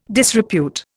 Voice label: "disrepute", Indian